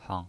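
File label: "hang", Mandarin Chinese, neutral tone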